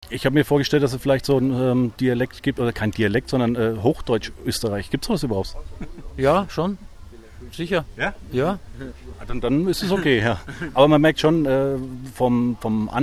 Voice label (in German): ANSAGE Ottakring